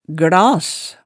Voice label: "glas", hemsedalsmaalet

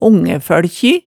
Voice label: "ongæfølkji", hemsedalsmaalet, tale

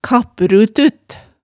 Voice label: "kapprutut", hemsedalsmaalet tale

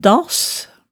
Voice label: "dass", hemsedalsmaalet tale

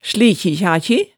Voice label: "slikjikjakji", hemsedalsmaalet, tale

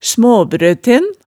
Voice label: "smaabrøten", hemsedalsmaalet

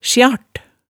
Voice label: "sjart", hemsedalsmaalet

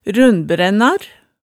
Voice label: "rundbrænnar", Hemsedal

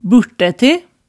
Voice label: "burtæ, bør, burt, bula", hemsedalsmaalet tale

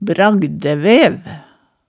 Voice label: "bragdævæv", hemsedals maalet tale

